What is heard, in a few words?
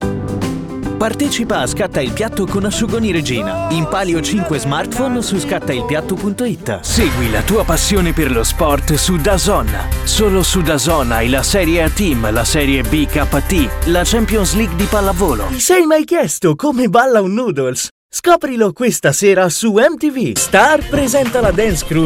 commercials